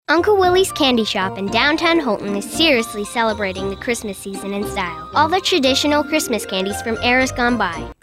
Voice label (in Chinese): 童声女 宣传广告片